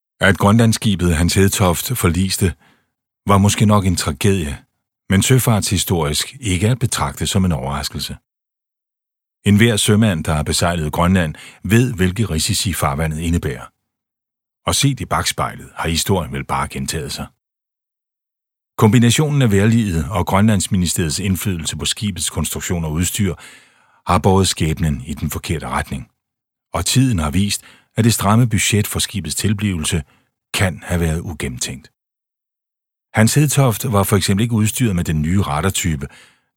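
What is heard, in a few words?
Danish Audiobook Male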